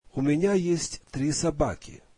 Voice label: russian I have three dogs